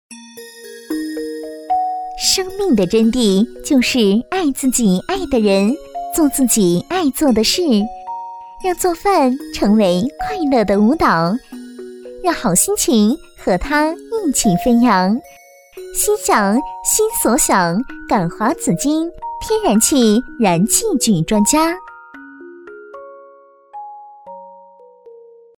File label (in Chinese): 品牌广告燃气具